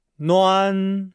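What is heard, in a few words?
"nuan", Chinese; Nihao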